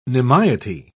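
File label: "nimiety", British English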